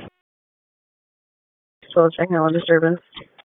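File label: Police Southwest District Dispatch, p25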